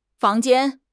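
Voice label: "fang2 jian1", Chinese; HSK; HSK2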